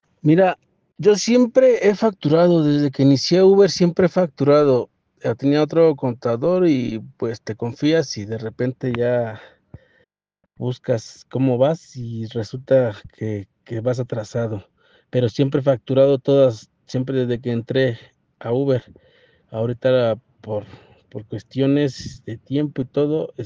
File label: whatsapp ptt